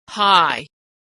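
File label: "high", American English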